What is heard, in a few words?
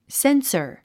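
"censor", English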